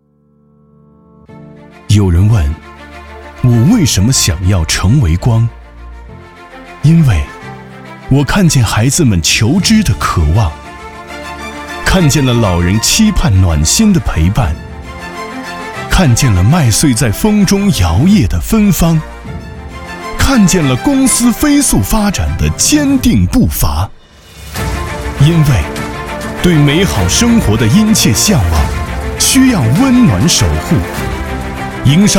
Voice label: 男,走心宣传片

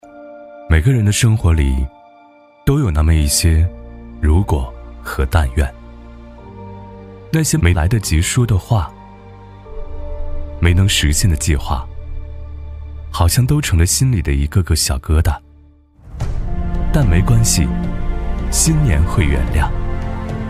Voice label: 男 TVC; 斯利安

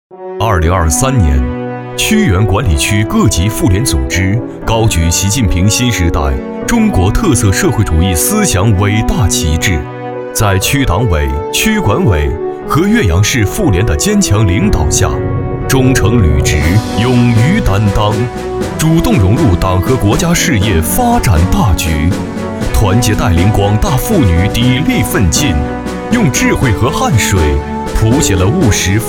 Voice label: 男 党建专题 大气浑厚

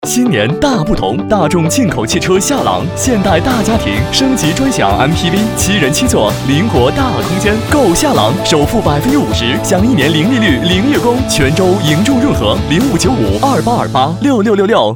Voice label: 男, 汽车, 轻松自然; 大众夏朗

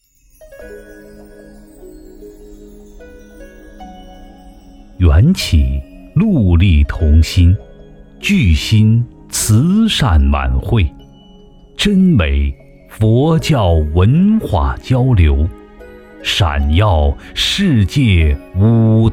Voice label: 男 佛教 祈福慈善梵音; 五台山

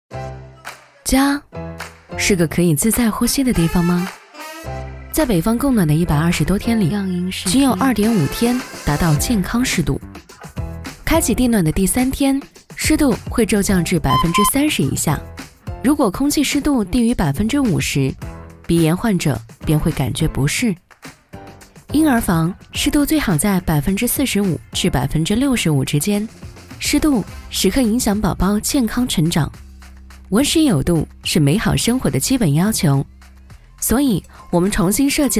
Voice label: 女 宣传片 生活; 宅鲸

